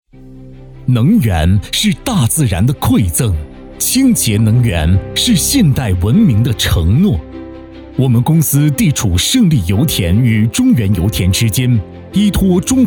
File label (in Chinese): 专题 宣传; 福佑德